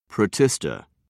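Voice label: "protista", British English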